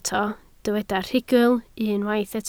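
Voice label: "rhugl" Welsh, spoken word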